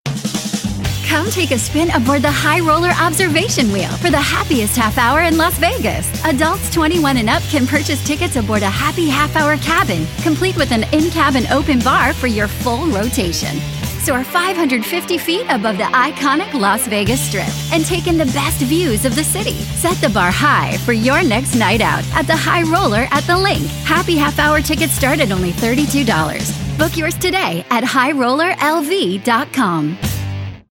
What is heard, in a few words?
radio spot; the linq happy half hour